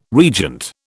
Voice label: "regent", British